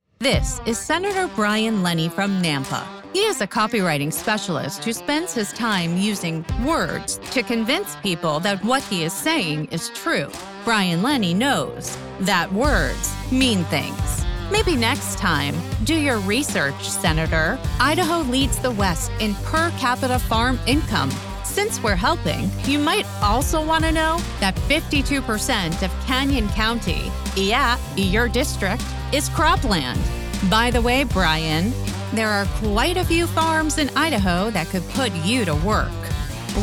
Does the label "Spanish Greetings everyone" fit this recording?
No